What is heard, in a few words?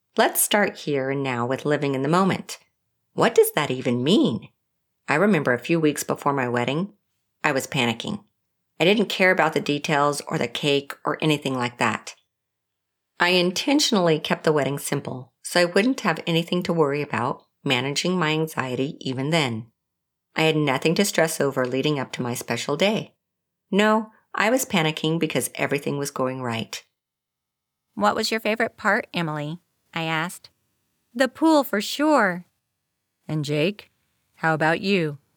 Audiobook